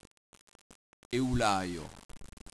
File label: "eulalia", Occitan